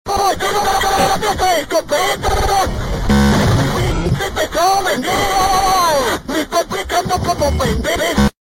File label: angry spanish